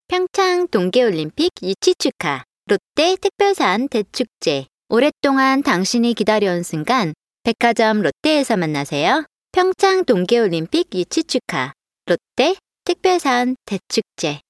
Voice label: Korean Department Store Commercial